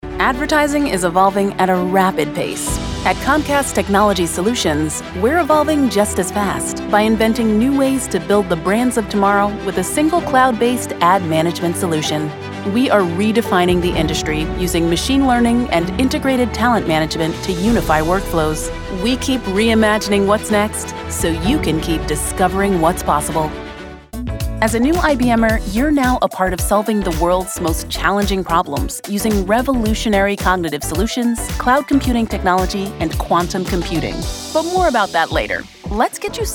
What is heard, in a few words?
women corporate